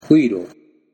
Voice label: "uirou", Japanese